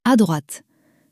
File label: "a droite", french; flashcards